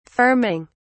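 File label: "firming", British English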